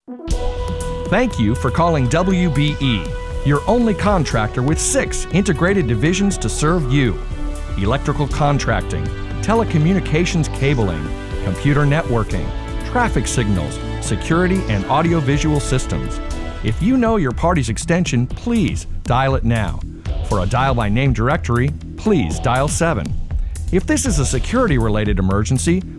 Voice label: Voice Over